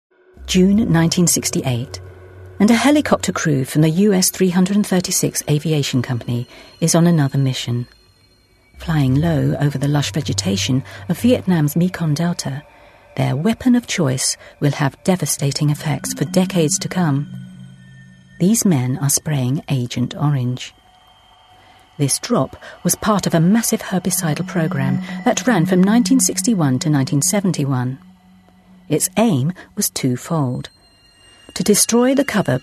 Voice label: Corporate